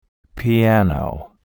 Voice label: "piano", vocabulary